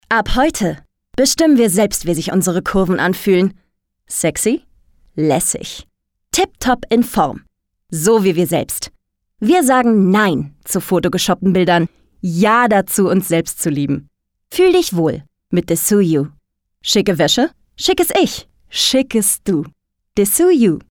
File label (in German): Werbung, Commercial, German, Norddeutsch; Dessous You